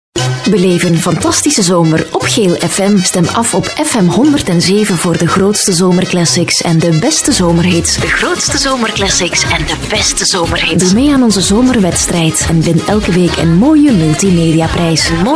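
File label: flemish female commercial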